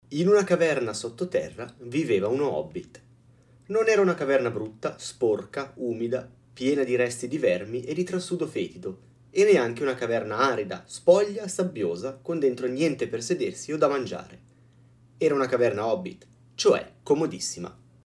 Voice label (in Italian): Magnus unidirezionale